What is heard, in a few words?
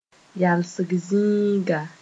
"yalsg zĩiga", Moore